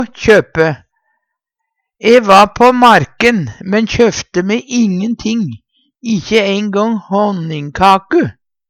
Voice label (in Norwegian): kjope, Numedalsmål